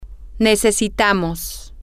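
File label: we need, Spanish, female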